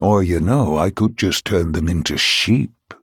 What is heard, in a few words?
Male Human